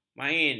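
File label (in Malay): "main", Malay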